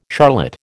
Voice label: "charlotte", American

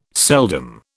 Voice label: "seldom", British

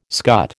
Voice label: "scut", American

